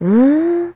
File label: confused; Crypt of the NecroDancer